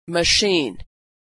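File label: "machine", American English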